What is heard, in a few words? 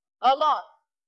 VoiceActing es